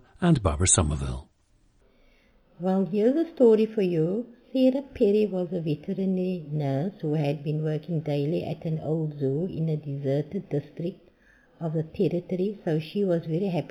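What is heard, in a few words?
south africa, dialects of English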